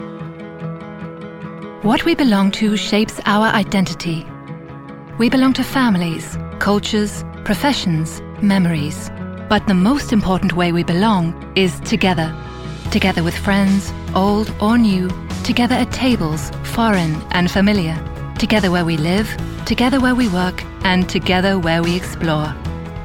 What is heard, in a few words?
Home Studio Read